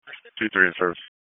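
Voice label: EMS dispatch; wake; p25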